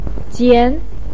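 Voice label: "jian" second tone, Mandarin Chinese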